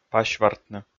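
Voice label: "пасьвартны", Komi, male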